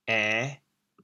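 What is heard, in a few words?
"ên5", Chaozhou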